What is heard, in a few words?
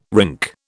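"rink", British English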